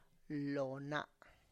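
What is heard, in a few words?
"lo na", Tlacochahuaya Zapotec; front Of Hand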